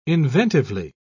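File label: "inventively", British English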